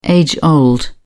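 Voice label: "age old", British English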